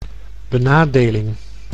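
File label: "benadeling", Dutch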